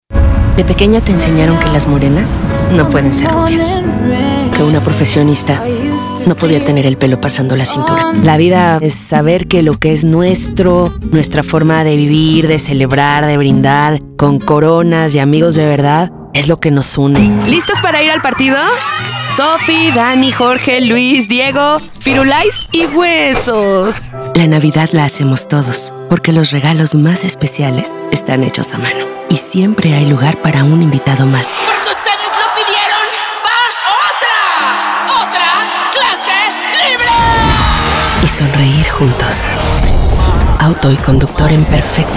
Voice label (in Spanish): Locutora Espanol Neutro